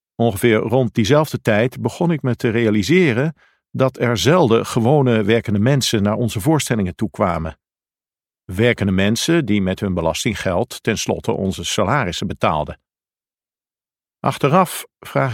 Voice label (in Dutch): Luisterboek